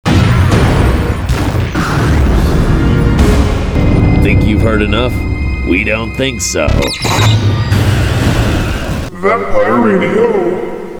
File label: Radio Ad